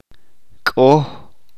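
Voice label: "Máscara", Chalchiteko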